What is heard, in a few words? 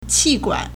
"qi4 guan3", Chinese